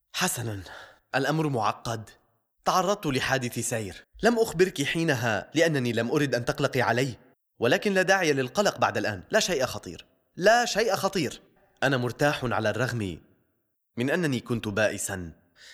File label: Drama